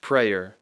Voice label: "prayer", English; Speech Pro